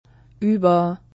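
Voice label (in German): "ueber", German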